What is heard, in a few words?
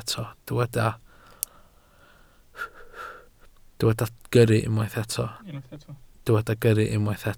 "gyrru", Welsh